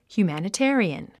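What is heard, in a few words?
"humanitarian", English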